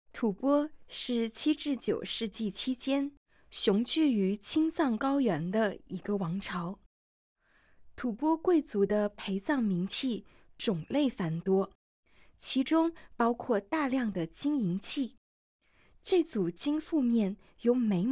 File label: Putonghua